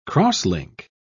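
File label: "cross-link", British English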